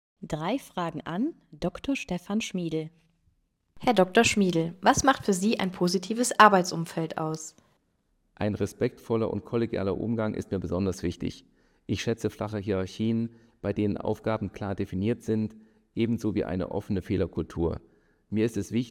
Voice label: Voice Over